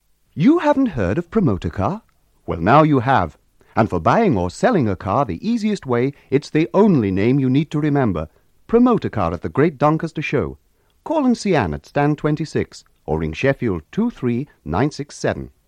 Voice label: Radio Advert; Radio Hallam; Promotocar

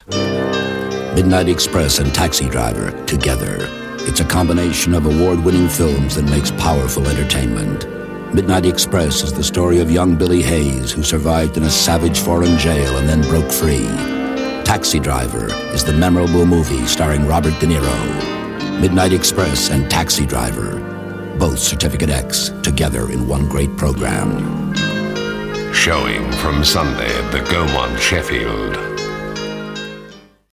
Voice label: Radio Advert; Radio Hallam; Taxi Driver and Midnight Express

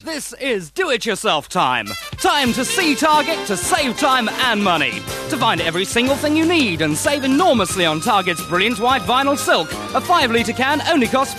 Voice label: Radio Advert; Radio Hallam; Target DIY

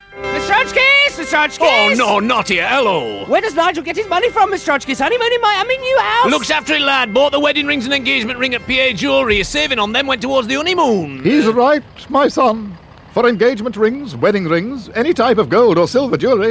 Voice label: Radio Advert, Jewellery; Radio Hallam; P A

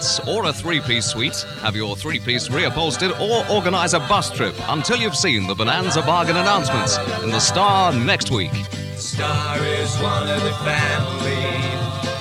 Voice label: Radio Hallam Advert - Bargains; Star Bonanza

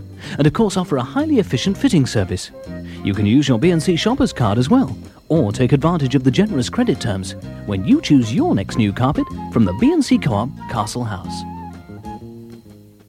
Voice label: Radio Advert, Carpets; Radio Hallam; B & C

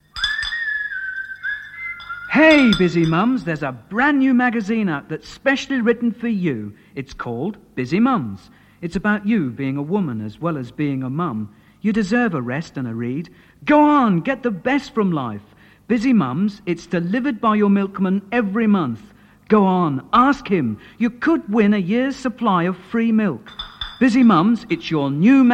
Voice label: Radio Hallam Advert; Busy Mums Magazine

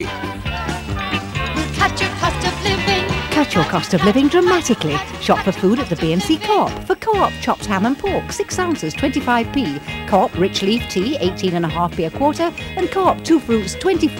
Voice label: Radio Advert, Coop; Radio Hallam; B & C

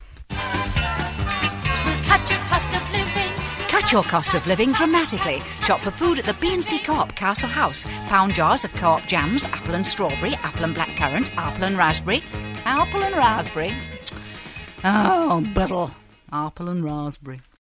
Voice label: Radio Advert, Outtake; Radio Hallam; B&C Coop

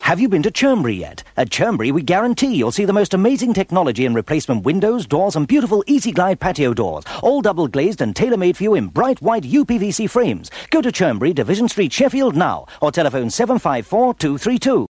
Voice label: Radio Advert; Radio Hallam; Churnberry

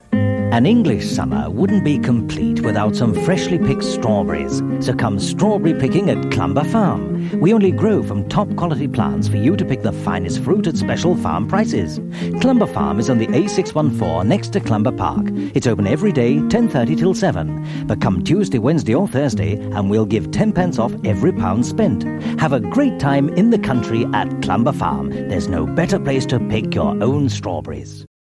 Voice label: Radio Hallam Advert; Clumber Farm

